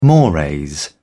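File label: "mores", British English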